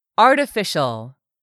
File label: "ARTIFICIAL", english; 3000 words